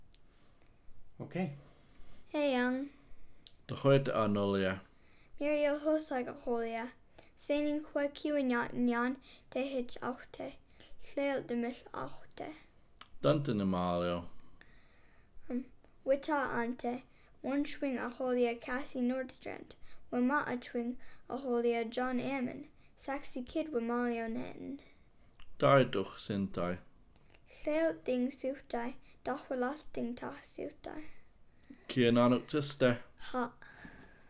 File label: conversation, Hupa